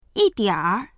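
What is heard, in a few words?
"yi4dianr3", Mandarin Chinese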